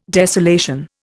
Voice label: "desolation", Indian